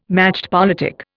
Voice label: "machtpolitik", Indian

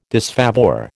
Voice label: "disfavour", American

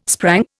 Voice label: "sprang", Indian

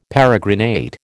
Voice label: "peregrinate", American

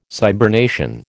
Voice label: "cybernation", American

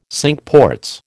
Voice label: "Cinque Ports", American

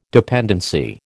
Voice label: "dependency", American

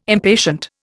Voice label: "impatient", Indian

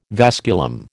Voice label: "vasculum", American

